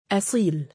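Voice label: "2a9iil" male, Arabic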